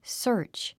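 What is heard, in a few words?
"search", English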